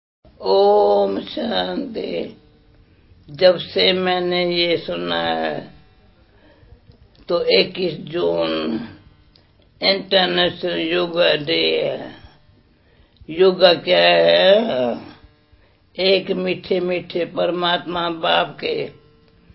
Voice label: message, Hindi; 21 June - International Yog Day; Classes; International Yoga Day